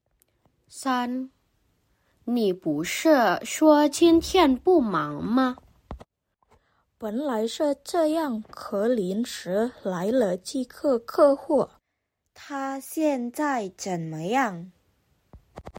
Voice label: Mandarin; mandarin placement test hsk5